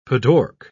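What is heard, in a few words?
"padauk", British English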